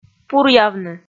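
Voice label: "пуръявны", Komi, female; kpv audio dict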